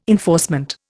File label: "enforcement", Indian